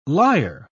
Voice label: "lyre", pronounce

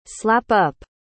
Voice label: "slap-up", pronounce

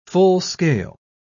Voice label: "full-scale", pronounce

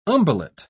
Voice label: "umbellate", pronounce

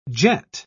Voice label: "jet", pronounce

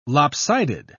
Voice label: "lopsided", pronounce

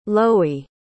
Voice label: "lowy", pronounce

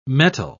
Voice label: "metal", pronounce

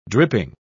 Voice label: "dripping", pronounce

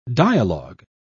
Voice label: "dialogue", pronounce

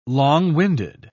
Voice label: "long-winded", pronounce

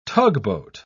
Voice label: "tugboat", pronounce

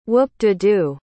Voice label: "whoop-de-do", pronounce

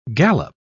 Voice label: "galop", pronounce

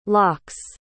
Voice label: "locks", pronounce

